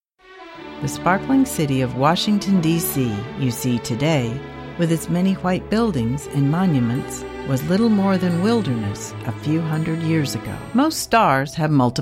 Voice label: narrations